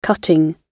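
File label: "cutting", British English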